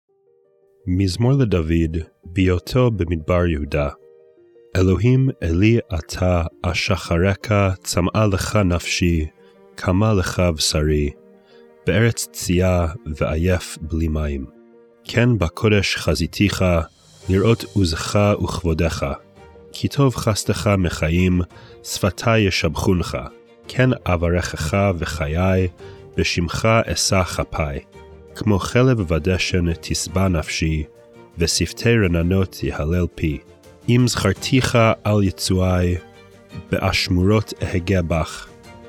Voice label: poetry and prayer psalm hebrew